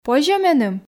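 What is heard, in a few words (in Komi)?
позьӧ меным, Komi, female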